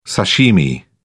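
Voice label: "sashimi", British English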